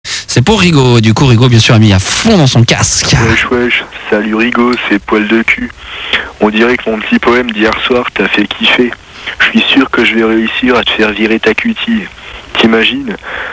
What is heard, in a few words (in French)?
repondeur